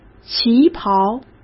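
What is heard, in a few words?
"旗袍", Mandarin Chinese; chinese-flashcards; hsk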